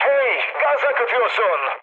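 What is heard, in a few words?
Turkish damaged water, mood high, speech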